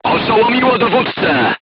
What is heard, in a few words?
voice message commander contused, crew tanks polish